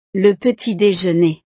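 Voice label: "le petit dejeuner", French; snacks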